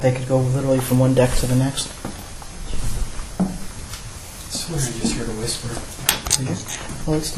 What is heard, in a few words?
whisper